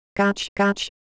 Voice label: Japanese Texture No Pros, ideophones